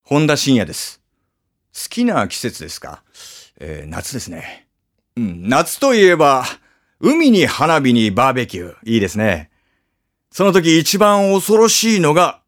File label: freetalk, male voice; フリートーク